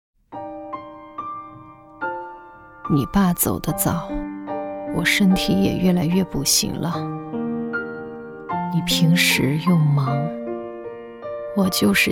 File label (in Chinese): 女 角色 妈妈 老年感